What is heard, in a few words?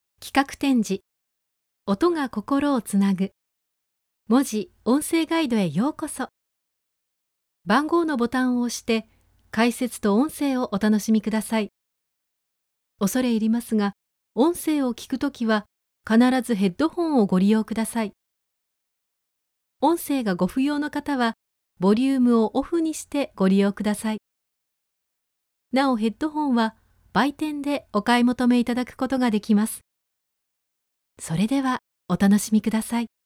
female